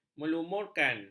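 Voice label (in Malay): "melumurkan", Malay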